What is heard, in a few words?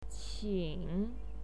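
"qin", Mandarin Chinese, third tone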